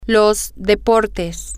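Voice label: the sports, spanish, female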